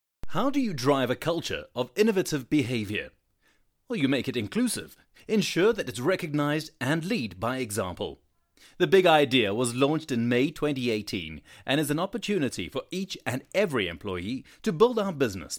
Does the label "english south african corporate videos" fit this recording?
Yes